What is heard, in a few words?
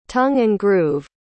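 "tongue-and-groove", English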